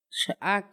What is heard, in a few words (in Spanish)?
hueso, Paipai; Vocabulary; INALI